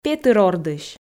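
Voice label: "петыр ордысь", Komi, female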